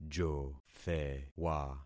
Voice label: "jothewa", concat syllables; Affixing experiment